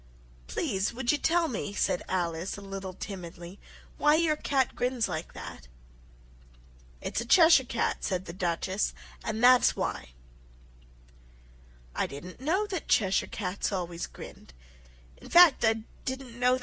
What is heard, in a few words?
alice in wonderland slow